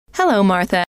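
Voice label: hello, female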